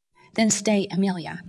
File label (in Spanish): Voice Acting es